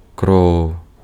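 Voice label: Ukrainian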